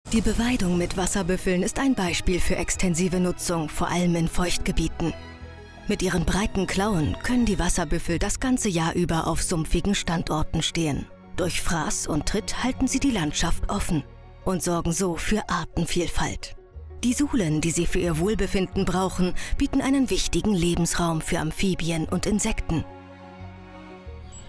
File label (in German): Dokumentation; Natura 2000; LOFT VOICES